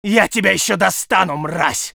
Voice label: voice sov infantry

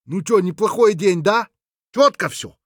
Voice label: scav mutter, voice; Escape from Tarkov; scav6

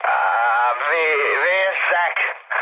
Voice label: damaged pilot, speech hun; War Thunder

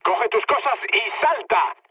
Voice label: bailout, mood med, speech; War Thunder

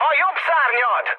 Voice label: right wing, speech hun; War Thunder

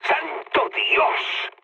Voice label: trick, mood high, speech; War Thunder

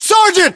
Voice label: rank sergeant, us

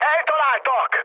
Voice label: aircraft destroyed, speech hun; War Thunder

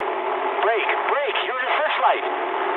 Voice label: eng searchlite mood med, speech eng; War Thunder

